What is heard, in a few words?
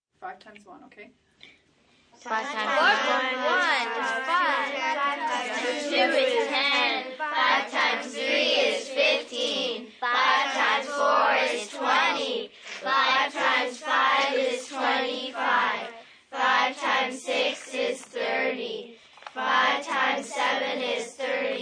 Math Class, Class, school, teacher asks grade 5 class to recite multiplication tables; Classroom Sound Effects; (5 x tables), someone sneezes, some words jumbled, students, kids